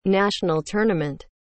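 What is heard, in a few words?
"national tournament", English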